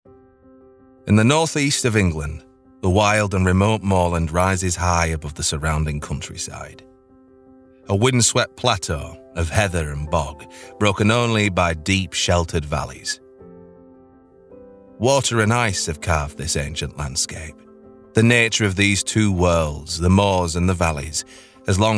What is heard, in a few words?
english british documentaries